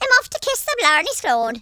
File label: "bye bye", Irish; speech